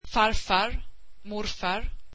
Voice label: swedish grandfather

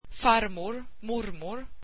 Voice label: swedish grandmother

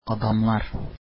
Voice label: men, turkish

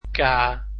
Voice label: "k", Dutch alphabet

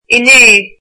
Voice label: yesterday, irish

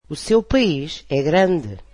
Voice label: portuguese your country is big

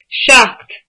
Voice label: "seven", Irish

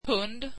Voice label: swedish dog

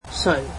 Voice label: "who", Cantonese

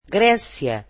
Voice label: portuguese Greece

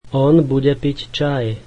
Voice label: slovak he will drink tea

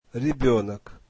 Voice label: russian child

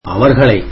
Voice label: "them", tamil

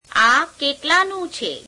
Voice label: gujarati how much is this; interrogative preposition; આ કેટલાનું છે?; [ā keeṭeleāneum chhee?]

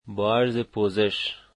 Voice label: Sorry, Dari

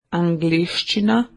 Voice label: "English", Slovene

